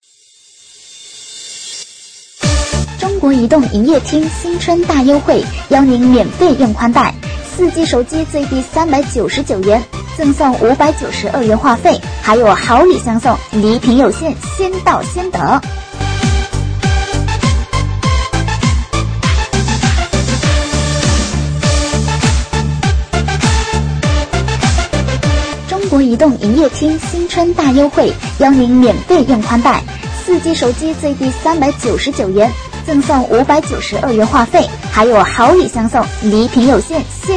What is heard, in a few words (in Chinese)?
女 促销